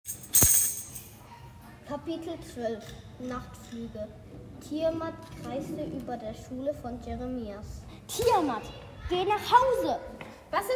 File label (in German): Hoerspiel